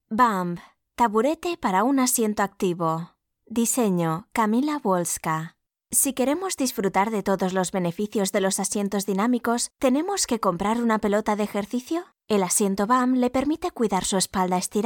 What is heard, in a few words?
SPANISH